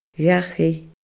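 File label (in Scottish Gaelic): "dhachaigh", Scottish Gaelic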